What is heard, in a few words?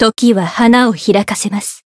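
Voice growth, Japanese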